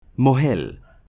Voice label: "Mohel", Hebrew